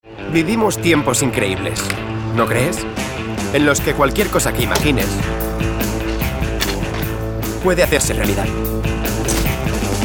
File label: male spanish wear comercial; ideasonora